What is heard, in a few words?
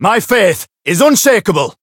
variable chant